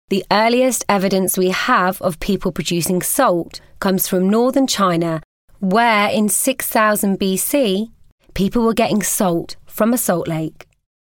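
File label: British English Narration; voice demos; GoLocalise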